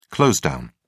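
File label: "close down", British English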